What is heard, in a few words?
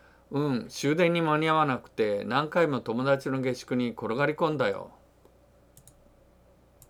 dialogue line, japanese